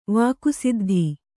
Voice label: "ವಾಕುಸಿದ್ಧಿ", Kannada

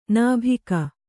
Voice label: "ನಾಭಿಕ", Kannada

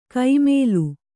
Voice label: "ಕೈಮೇಲು", Kannada

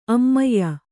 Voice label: "ಅಮೇಯ", Kannada

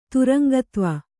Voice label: "ತುರಂಗತ್ವ", Kannada

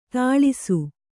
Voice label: "ಥಳಿಸು", Kannada

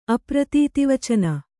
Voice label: "ಅಪ್ರತೀತಿವಚನ", kannada

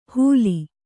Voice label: "ಹೂಲಿ", Kannada

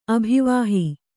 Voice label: "ಅಭಿವಾಹಿ", Kannada

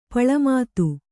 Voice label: "ಪುಳ್ಳೆಮಾತು", kannada

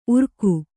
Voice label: "ಉರುಗು", Kannada

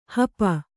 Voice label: "ಹಪ್ಪು", Kannada

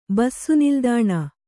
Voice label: "ಬಸ್ಸುನಿಲ್ದಾಣ", Kannada

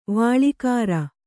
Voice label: "ವಾಳಿಕಾರ", Kannada

